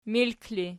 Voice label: "milk", Archi